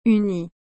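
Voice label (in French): "uni", French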